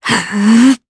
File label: Vox Casting, jp; Valance; kings raid